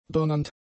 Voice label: "donnernd", German; adjectives

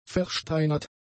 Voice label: "versteinert", German; adjectives

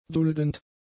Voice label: "duldend", German; adjectives

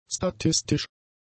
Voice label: "statistisch", German; adjectives

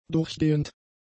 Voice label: "durchgehend", German; adjectives

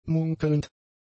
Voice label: "munkelnd", German; adjectives

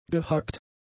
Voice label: "gehackt", german; adjectives

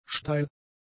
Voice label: "steil", German; adjectives

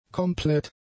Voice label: "komplett", German; adjectives

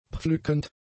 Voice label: "pflückend", German; adjectives

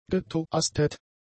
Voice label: "getoastet", german; adjectives

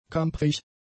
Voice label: "kampffähig", German; adjectives

